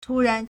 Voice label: "tu1ran2", Chinese